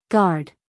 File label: "guard", us female